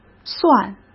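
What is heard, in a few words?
"蒜", Mandarin Chinese; chinese flashcards; hsk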